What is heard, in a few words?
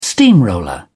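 "steamroller", British English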